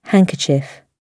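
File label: "handkerchief", British English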